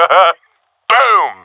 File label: i killed enemy, professional epic; vo; Source Model Packs; Counter Strike Model Pack; CS2